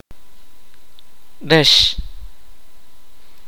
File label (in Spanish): "verde", Kaqchikel